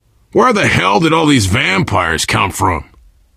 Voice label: vampires, player survivor voice biker